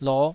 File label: "loh5", Taiwanese syllable